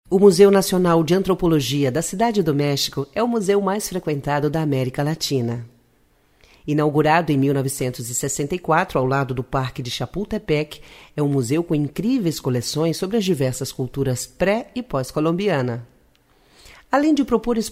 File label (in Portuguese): locucao mexico